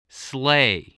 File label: "sleigh", voice, spelling